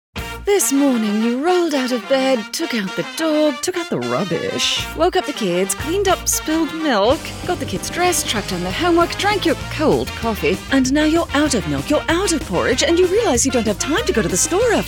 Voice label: British RP, british english